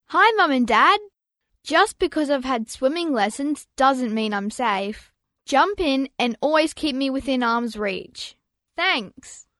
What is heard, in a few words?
Female Child Doesnt mean Im safe; public pool; keep watch; public pool partners queensland